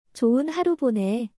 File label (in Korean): "조은 하루 보내！", Korean; チョウン ハル ボネ！